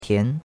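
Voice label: "tian2", Mandarin Chinese; Cours-de-Chinois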